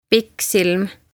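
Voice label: "Pikksilm", Estonian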